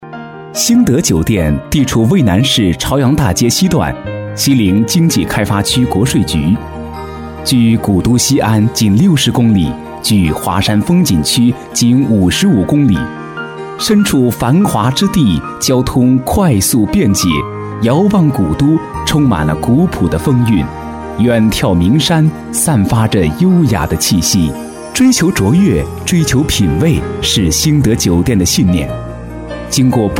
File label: boy